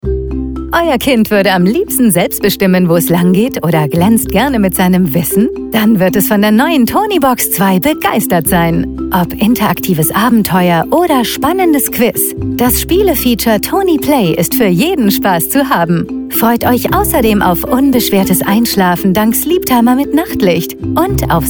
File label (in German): POS Spot; Tonies; pos radio spot; ladenfunk